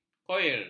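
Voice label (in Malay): "koir", Malay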